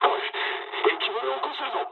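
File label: damaged water mood low, speech japanese; War Thunder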